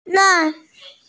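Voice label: "nen4", Mandarin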